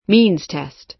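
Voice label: "means test", American English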